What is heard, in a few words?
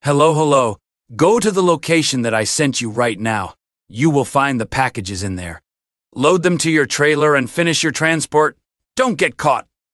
phonecall